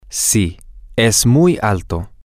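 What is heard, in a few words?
Spanish, male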